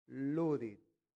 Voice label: "ludit", latin; santillana